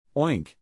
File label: "oink", English, neural; animal sounds in english; Pigs; Stephen